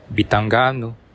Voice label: where from, bonggi

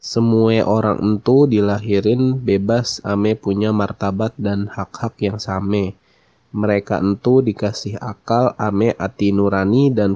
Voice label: Betawi

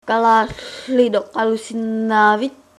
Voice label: do you speak greenlandic, Greenlandic

